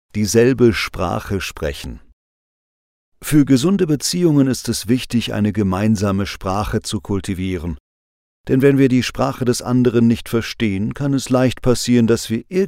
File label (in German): Hoerbuch; Neulaendisch